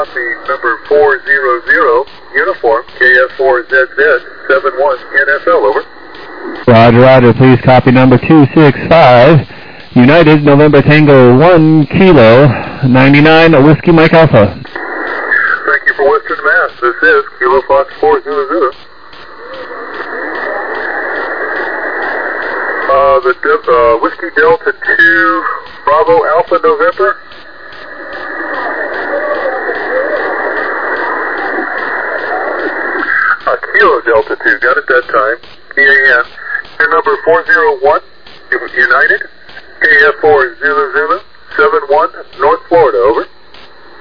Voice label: SSB USB 21MHz